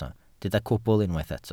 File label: "cwbl", welsh